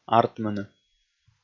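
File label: "артмыны", Komi, male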